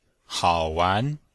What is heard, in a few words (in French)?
"hao3wan2", chinois; Cours de Chinois